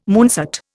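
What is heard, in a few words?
"moonset", Indian English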